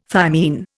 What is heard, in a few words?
"thymine", Indian